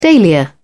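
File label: "dahlia", British English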